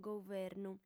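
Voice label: "governu", Sardinian, female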